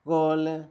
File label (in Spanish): "yema de huevo", Zapoteco, Magdalena Ocotlan; Alimento; INALI; Haspelmath